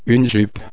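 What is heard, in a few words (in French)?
"jupe", French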